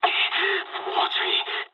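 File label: near waypoint mood med, speech japanese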